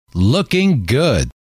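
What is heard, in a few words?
"looking good", male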